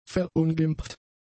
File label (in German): "verunglimpft", German; adjectives